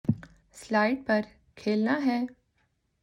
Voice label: Urdu